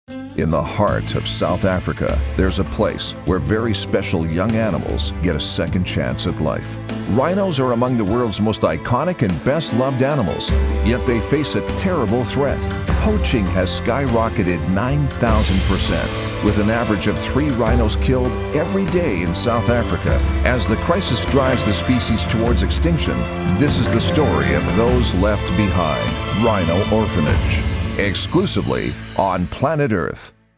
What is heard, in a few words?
english canadian tv shows